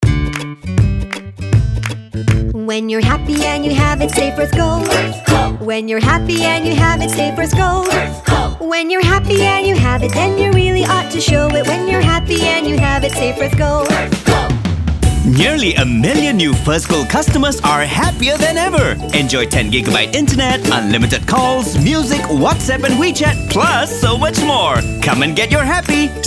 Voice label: ENG; First Gold